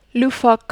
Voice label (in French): "loufoque", French